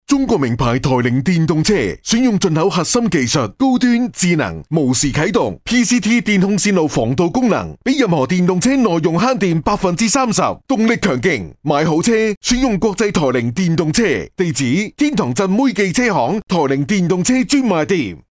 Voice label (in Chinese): 男【促销】; 电动车; 粤男; 台铃电动车